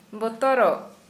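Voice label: Santali, female, scared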